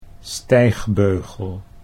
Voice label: "stijgbeugel", Dutch

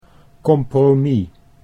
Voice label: "compromis", Dutch

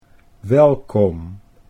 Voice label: "Welkom", Dutch

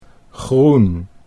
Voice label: "groen", Dutch

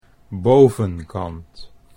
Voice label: "bovenkant", Dutch

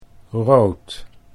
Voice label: "rood", Dutch

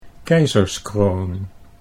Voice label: "keizerskroon", Dutch

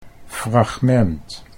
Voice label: "fragment", Dutch

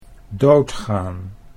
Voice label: "doodgaan", Dutch

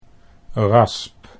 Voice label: "rasp", Dutch

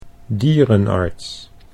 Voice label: "dierenarts", Dutch

